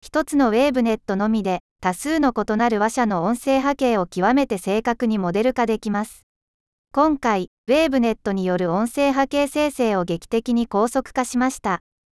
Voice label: japanese